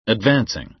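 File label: "advancing", American English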